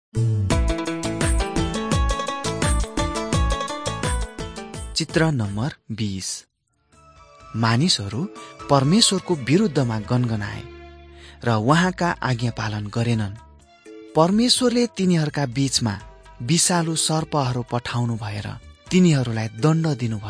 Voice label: mighty men of god nepali

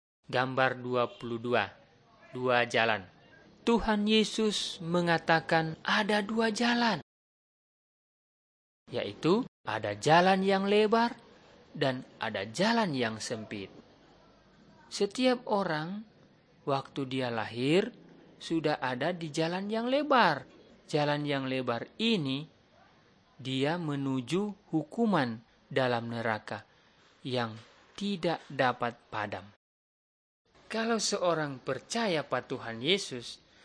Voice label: malay manado; good news

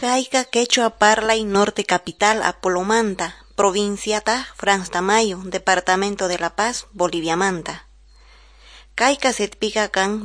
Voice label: quechua norte de bolivia apolo

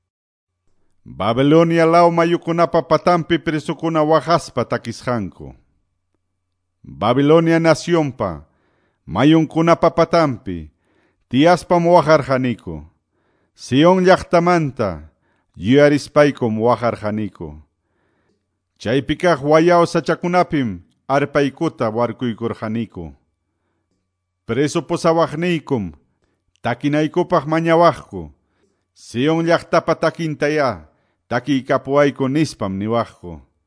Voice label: salmos, quechua ayacucho

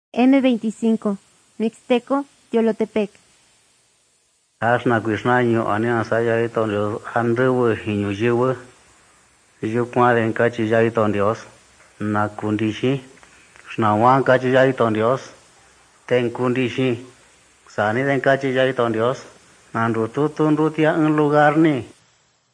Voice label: mixtec diagnostic spanish mexico

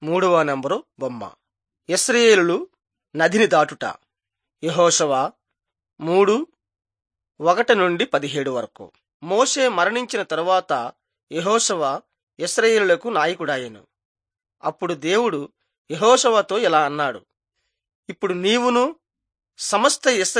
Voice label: telugu bangladesh

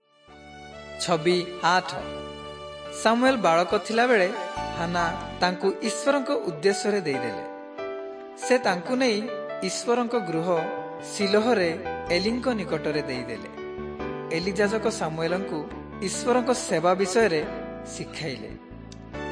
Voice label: southern oriya; servants of god

